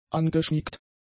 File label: "angeschmiegt", German; adjectives